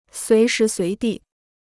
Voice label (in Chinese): "随时随地", Chinese